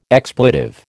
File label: "expletive", American